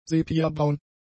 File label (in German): "sepiabraun", German; adjectives